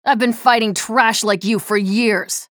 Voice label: chatter positive; nyx; frag

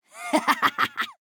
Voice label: chatter positive; slash

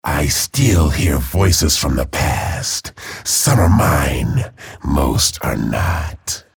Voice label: chatter neutral

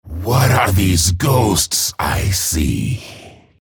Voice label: chatter negative; respawn

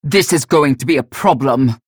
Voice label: chatter negative; galena; respawn

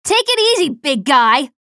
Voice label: chatter negative; slash; respawn

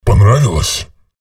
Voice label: chatter negative; visor; respawn

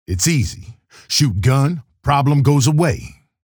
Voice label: chatter positive; keel; frag

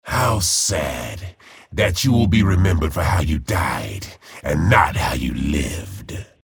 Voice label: chatter positive; frag

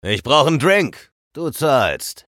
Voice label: chatter neutral; eisen

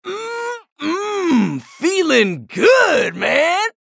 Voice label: chatter neutral; anarki

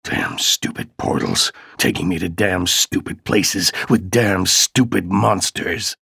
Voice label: menu chatter; ranger; respawn

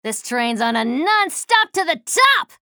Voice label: chatter neutral; slash

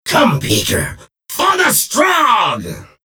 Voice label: chatter neutral; strogg infiltrator

